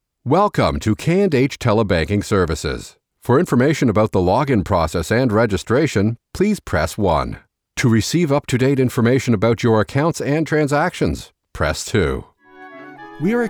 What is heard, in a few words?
IVR